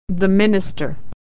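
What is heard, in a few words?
"minister", American English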